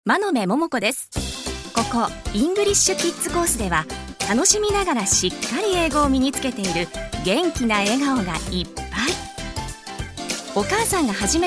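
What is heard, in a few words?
female voice, English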